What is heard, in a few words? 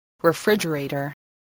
"refrigerator", spelling voice